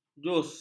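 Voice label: "jus", Malay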